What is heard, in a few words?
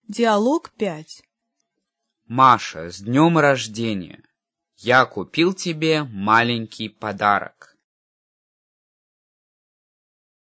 dialog, russian